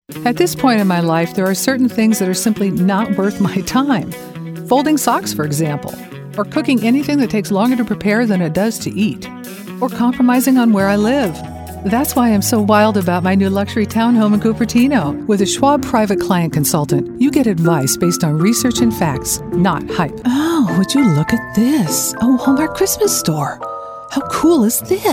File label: Commercial